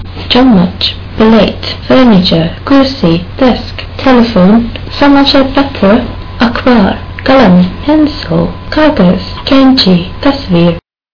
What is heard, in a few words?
hindi